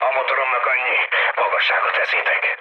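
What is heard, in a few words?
Hungarian damaged engine mood med, speech; War Thunder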